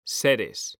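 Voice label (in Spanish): "seres", Spanish; mekanta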